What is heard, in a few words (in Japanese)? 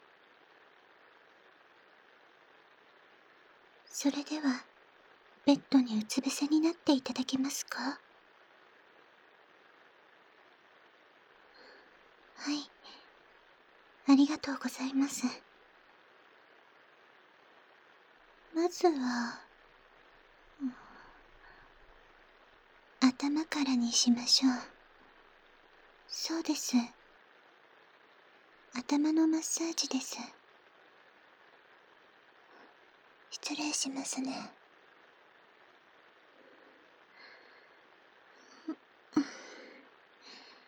お礼のマッサージ, 雨音あり効果音なし; 奴隷少女ロザリィ～貧しい絵描きのあなたが捨てられた少女と出会い、一緒に暮らし、やがて結婚するまでの物語～